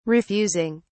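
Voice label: "refusing", British English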